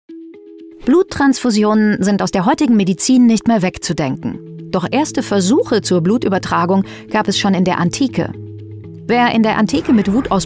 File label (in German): doku; bloodyhistory; alles ueber blut; youtube; Sprecher in Köln; Muttersprache Deutsch